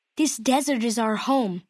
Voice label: male child greeting; FNV